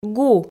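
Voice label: "гу", Komi, female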